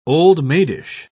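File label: "old-maidish", British English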